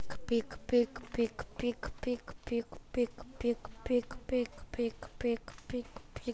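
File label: "pick", spoken word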